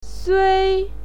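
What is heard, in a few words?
"sui", Mandarin Chinese, first tone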